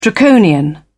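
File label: "draconian", British English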